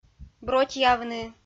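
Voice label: "бротьявны", Komi-Zyrian, female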